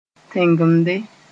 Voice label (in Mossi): "tẽngemde", Moore